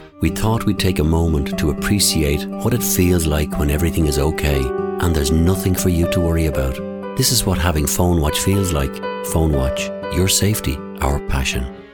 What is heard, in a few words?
ad; Phonewatch